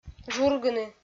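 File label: "жургыны", Komi, female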